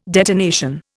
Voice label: "detonation", Indian

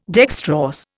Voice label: "dextrorse", Indian

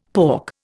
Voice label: "pork", Indian; Dictionary

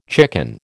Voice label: "chicken", American

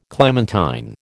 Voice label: "clementine", American

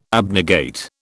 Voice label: "abnegate", British

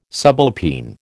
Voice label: "subalpine", American

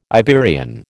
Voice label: "Iberian", American

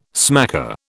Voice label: "smacker", British

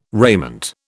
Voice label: "raiment", British

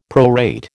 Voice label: "prorate", American

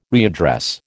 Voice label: "readdress", American

